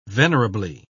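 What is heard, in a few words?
"venerably", British English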